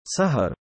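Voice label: "sahar" female name, Arabic; سَهَر; サハル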